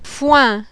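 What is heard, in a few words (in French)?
"FOIN", French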